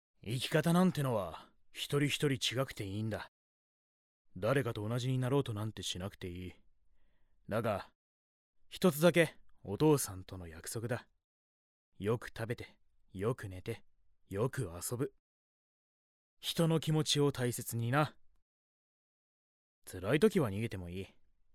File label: Reliable Father; 頼れるお父さん; bloomz-inc